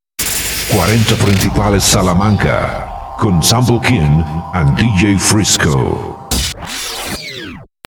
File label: jingle; 40 salamanca